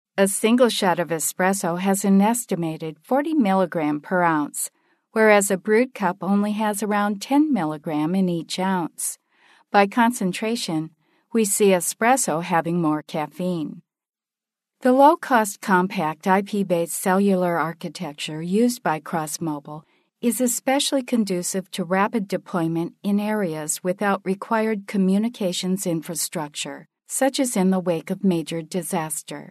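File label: English, US, female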